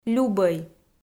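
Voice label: "любӧй" Komi, female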